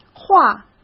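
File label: "画", Mandarin Chinese; chinese flashcards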